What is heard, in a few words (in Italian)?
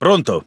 Speech Pilots Torpedo Ready, IT; World Of Warships